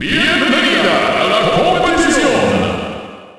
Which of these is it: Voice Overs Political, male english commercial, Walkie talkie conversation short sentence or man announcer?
man announcer